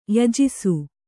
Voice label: "ಯಜಿಸು", Kannada